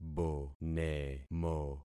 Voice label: "bonemo", concat syllables; Affixing experiment

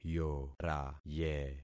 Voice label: "yoraye", concat syllables; Affixing experiment

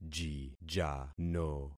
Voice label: "jijano", concat syllables; Affixing experiment

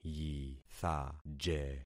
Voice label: "yithaje", concat syllables; Affixing experiment

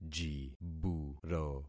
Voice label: "jiburo", concat syllables; Affixing experiment

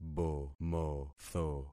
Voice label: "bomotho", concat syllables; Affixing experiment

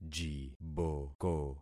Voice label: "jiboko", concat syllables; Affixing experiment

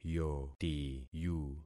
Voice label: "yotiyu", concat syllables; Affixing experiment

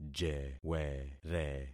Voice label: "jewere", concat syllables; Affixing experiment

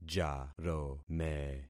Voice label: "jarome", concat syllables; Affixing experiment

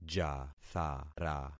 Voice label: "jathara", concat syllables; Affixing experiment

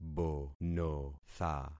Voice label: "bonotha", concat syllables; Affixing experiment

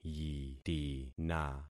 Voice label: "yitina", concat syllables; Affixing experiment

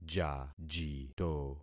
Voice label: "jajito", concat syllables; Affixing experiment

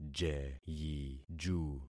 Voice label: "jeyiju", concat syllables; Affixing experiment

